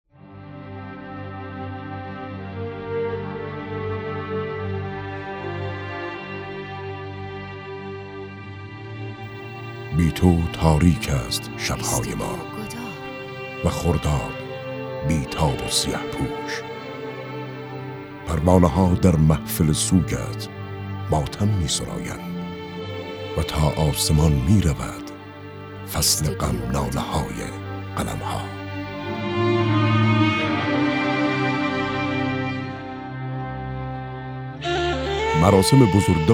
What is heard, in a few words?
commercial